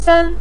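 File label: "sen", Mandarin Chinese, neutral tone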